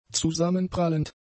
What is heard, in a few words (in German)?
"zusammenprallend", German; adjectives